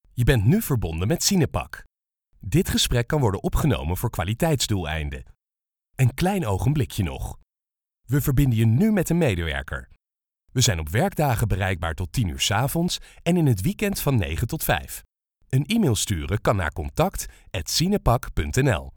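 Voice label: Dutch, male, IVR; voice over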